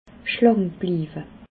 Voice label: "schlankbliiwe", alsace

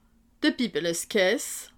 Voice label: "bibbelskaas", alsace

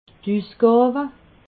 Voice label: "usgawe", alsace

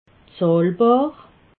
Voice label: "zahlbar", alsace

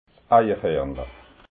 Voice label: "eichharnla", alsace

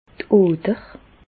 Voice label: "oder", Bas Rhin; medecine; artère

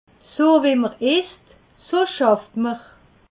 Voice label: "isst", alsace

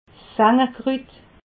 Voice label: "sangerkrut", alsace

